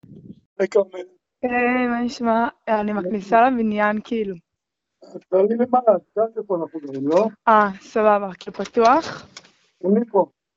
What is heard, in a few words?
Call recording